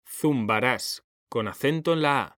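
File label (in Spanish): "ZUMBARÁS", Spanish, male; mekanta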